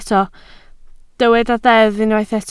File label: "deddf", Welsh